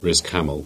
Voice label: "Camelford", Cornish; place names